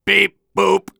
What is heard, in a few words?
Soldier robot